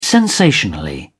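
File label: "sensationally", British English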